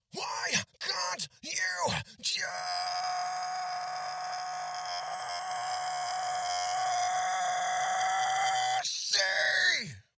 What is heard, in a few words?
Mid fry, voice